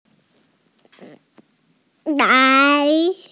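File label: "lai2", Chinese, female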